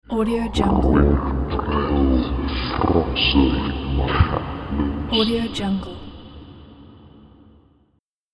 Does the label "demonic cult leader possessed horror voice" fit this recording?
Yes